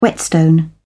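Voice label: "whetstone", British English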